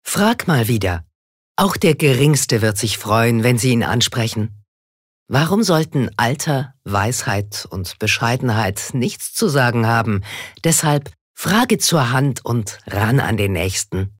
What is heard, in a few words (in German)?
Werbung Freundlich